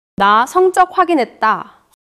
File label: neutral, monologue